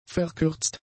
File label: "verkürzt", German; adjectives